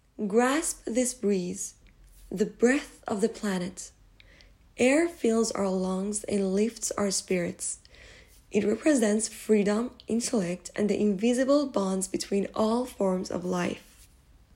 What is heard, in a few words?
Breeze, Narration